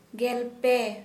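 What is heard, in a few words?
thirteen, Santali, female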